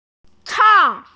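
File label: "chang" Chinese, female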